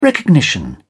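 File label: "recognition", British English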